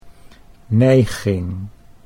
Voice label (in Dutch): "neiging", Dutch